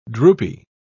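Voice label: "droopy", British English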